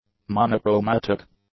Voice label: "monochromatic", English; adjectives